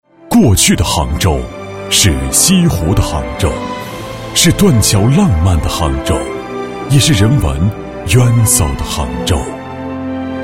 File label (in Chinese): 宣传片, 地产, 舒缓; 杭州钱塘江地产